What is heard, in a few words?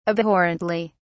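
"abhorrently", British English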